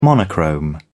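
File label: "monochrome", English, British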